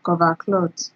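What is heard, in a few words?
"cover clot", Nigerian Pidgin, female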